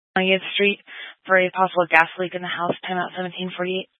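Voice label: Fire Dispatch, scanner audio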